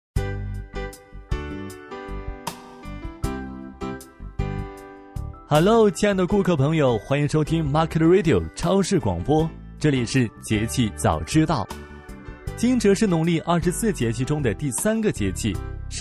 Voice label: 广播; 电台包装

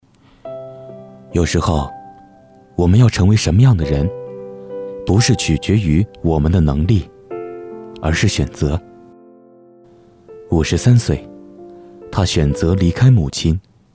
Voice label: 旁白 进取

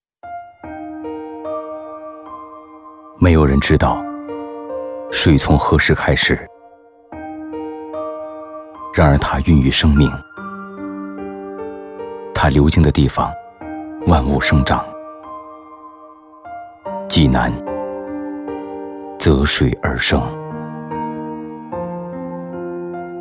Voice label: 温情声音; 印象济南; 城市宣传片

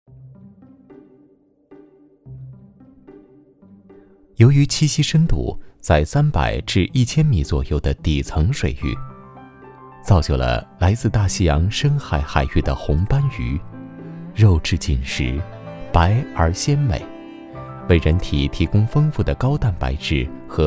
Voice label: 舌尖风格; 喜家德

